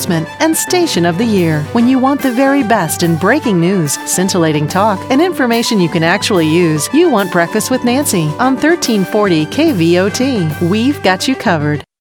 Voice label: RADIO PROMO MORNING SHOW HOST